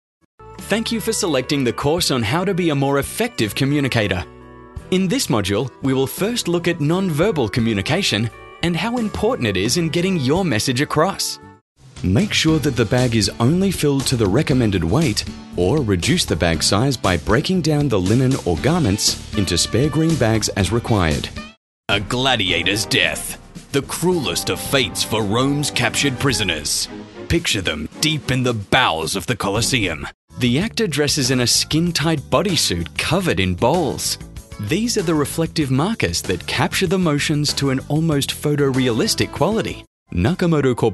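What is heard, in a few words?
Australian, narration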